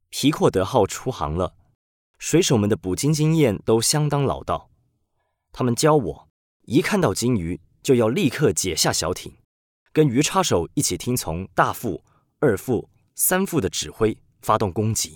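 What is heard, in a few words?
chinese cantonese male narration; voice demos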